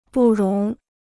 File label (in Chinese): "不容", Chinese